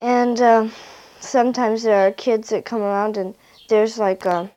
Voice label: Conversation with 12 year old white female Detroit Michigan, american english dialect